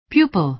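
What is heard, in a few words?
"pupil", American English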